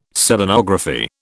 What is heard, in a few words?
"Selenography", British